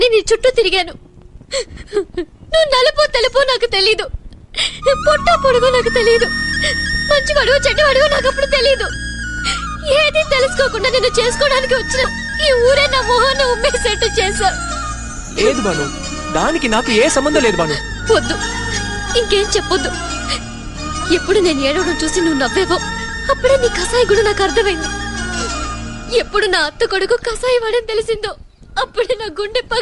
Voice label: telugu movies love dialogues